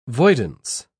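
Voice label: "voidance", British English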